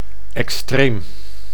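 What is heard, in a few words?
"extreem", Dutch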